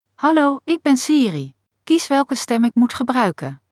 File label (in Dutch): nl-NL klaar; iOS 26 Sounds